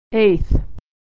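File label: "eighth", American English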